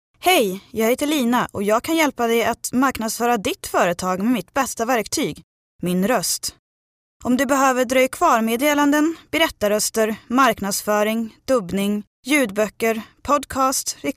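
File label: Swedish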